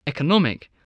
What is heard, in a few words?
"economic", English